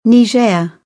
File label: "Niger", spoken; africa